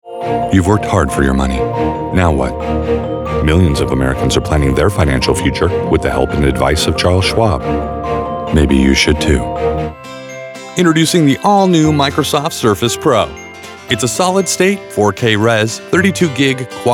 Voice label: Commercial, Male voices